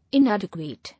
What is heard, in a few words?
"Inadequate", English